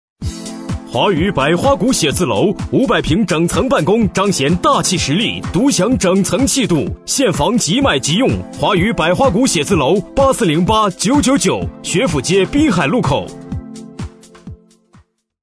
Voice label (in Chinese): 男声 电台广告 写字楼; 华宇百花谷